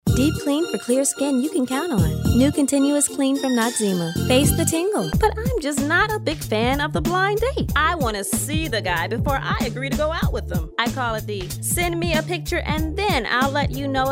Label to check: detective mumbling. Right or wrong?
Wrong